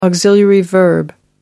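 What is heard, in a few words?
"auxiliary verb", English, British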